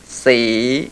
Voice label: low rising tense, mpi